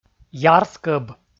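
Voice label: "ярскӧб", Komi, male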